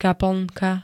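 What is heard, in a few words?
"kaplnka", Slovak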